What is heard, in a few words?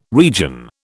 "region", British